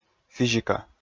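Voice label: "физика", Komi, male; kpv audio dict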